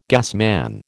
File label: "gasman", American